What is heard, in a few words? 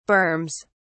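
"berms", British English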